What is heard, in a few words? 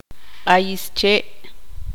tzutujil; plant